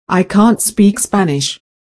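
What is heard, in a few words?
I can't speak Spanish, British English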